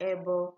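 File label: "ebo", Nigerian Pidgin, female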